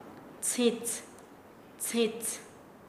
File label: Nasa Yuwe; paja; paez